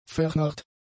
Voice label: "vernarrt", German; adjectives